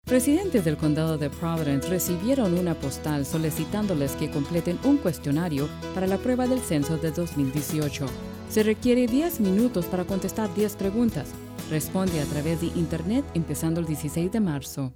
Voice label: providence response spanish; 2018 census test